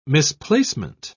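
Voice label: "misplacement", British English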